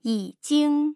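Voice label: "yijing", Mandarin Chinese, tone pairs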